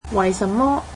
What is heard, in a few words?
cantonese why